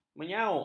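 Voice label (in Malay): "menyauk", Malay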